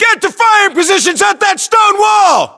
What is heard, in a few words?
US order cover wall stone, battlechatter, english